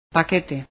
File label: packet, spanish